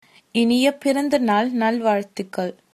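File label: birthday, tamil, female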